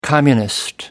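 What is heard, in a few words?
"communist", British English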